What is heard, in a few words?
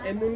"mun", to kill, Ansus